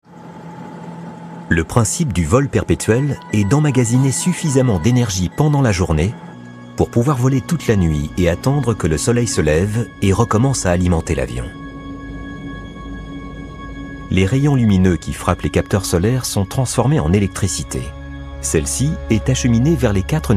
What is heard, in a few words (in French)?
narration; Solar Impulse